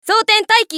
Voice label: 女 選択; マスケッティア

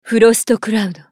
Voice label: ウォーロック女; フロストクラウド

